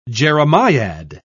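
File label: "jeremiad", British English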